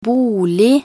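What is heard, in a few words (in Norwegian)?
"bolig", Norwegian; talkbook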